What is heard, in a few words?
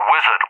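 "Wizard", English, UK; CallSign; DCS; Allied Flight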